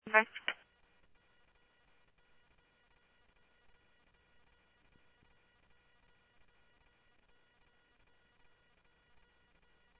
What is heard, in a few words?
Tempe Police, scanner audio